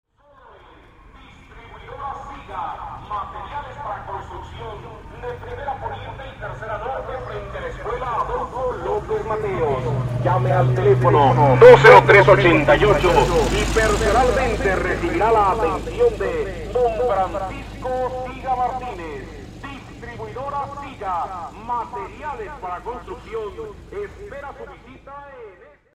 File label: Mexican Megaphone; Interior Crowds